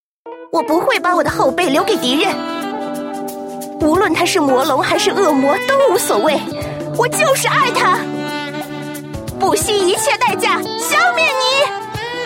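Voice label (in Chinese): qingniannv, 青年女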